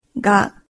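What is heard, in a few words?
"ga", Japanese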